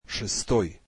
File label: russian sixth